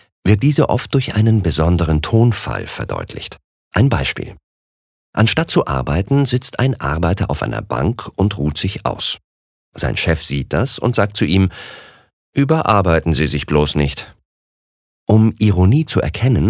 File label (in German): Sarkasmus Neutral